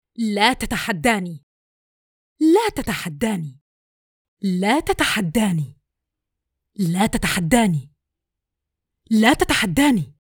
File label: Empress Threatening; Gaming